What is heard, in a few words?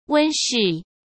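"温室", Mandarin Chinese; chinese flashcards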